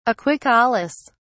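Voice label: "aquicolous", British English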